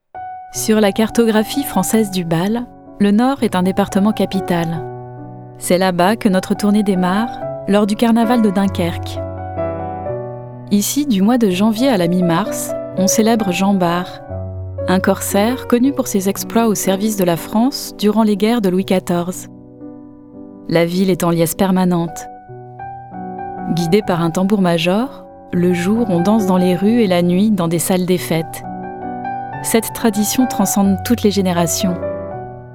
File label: Docu; CARNET DE BAL